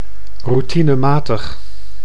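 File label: "routinematig", Dutch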